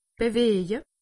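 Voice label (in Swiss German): "beweje", Alsatian; medecine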